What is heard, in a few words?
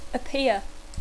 "appear", English